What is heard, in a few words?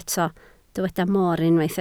"moor", Welsh